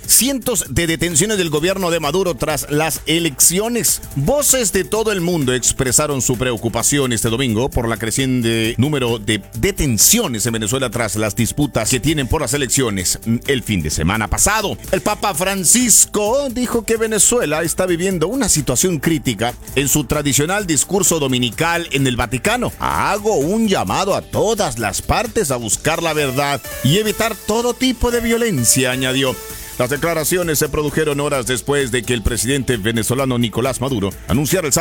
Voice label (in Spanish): SEGMENTO NOTICIAS PARA RADIO